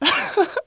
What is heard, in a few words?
woman